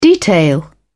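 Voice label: "detail", British English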